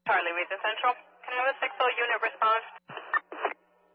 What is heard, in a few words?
amb police chatter; netent